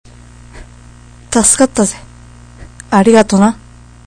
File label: thanks m